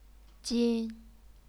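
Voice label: "jin", Chinese, first tone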